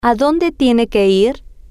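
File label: Adonde Tiene Que Ir, Spanish, female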